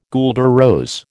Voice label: "guelder rose", American